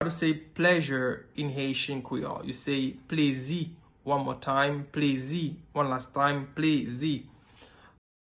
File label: Pleasure in Haitian Creole Plezi pronunciation